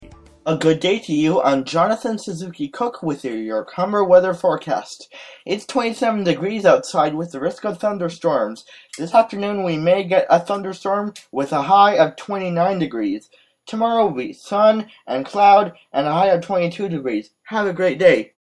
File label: Local Forecast voiceover